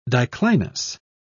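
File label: "diclinous", British English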